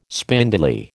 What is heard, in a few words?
"spindly", American